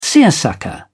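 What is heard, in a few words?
"seersucker", British English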